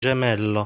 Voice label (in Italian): "Gemello", Italian